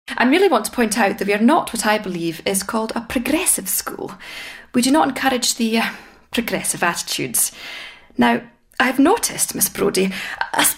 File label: dramatic